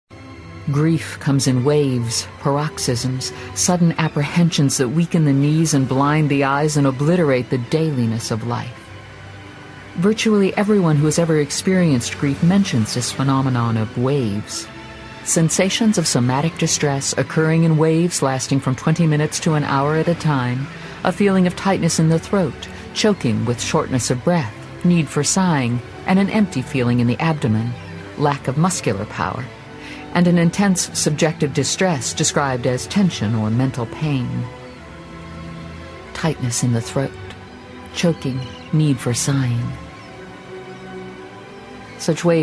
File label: Narration; Grief